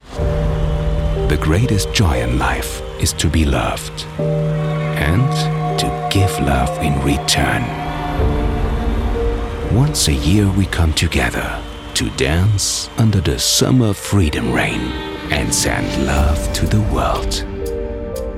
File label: Teaser ENGLISH; Braunywood